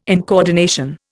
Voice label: "incoordination", Indian

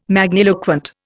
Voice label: "magniloquent", Indian

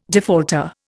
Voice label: "defaulter", Indian

